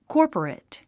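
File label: "corporate", English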